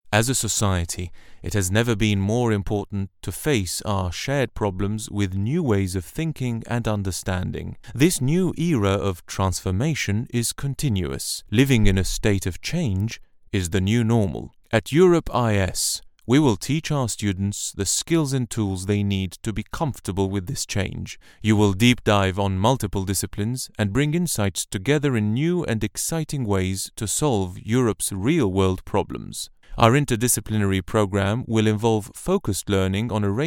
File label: corporate